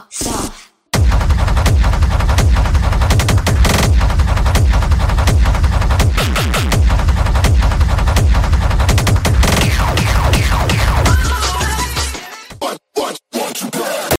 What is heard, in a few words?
voice ringtone; i phone 8 plus